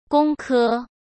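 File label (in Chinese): "工科", Mandarin; chinese-flashcards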